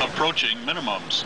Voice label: approaching minimums, 737-800, gpws